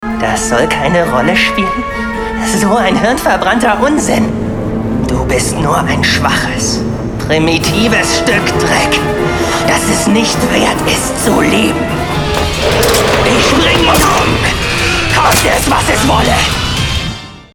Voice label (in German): synchro wahnsinnig